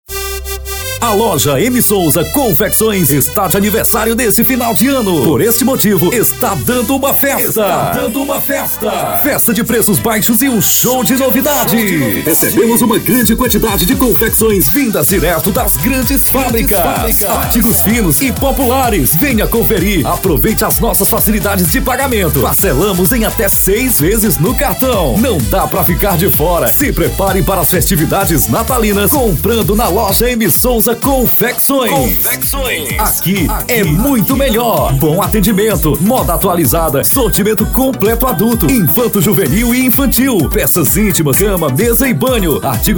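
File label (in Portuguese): Voz Padrão - Grave, Masculino, Português - Brasileiro; M.Sousa Confecções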